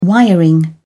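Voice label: "wiring", English, British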